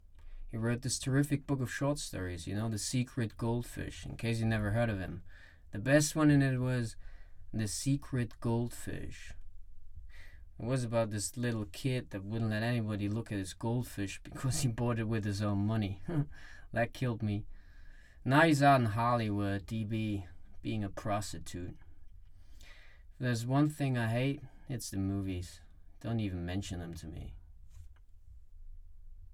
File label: ENGLISH; Catcher in the rye